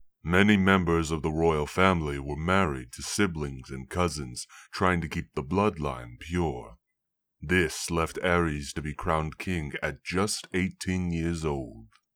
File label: narrator audition; game of thrones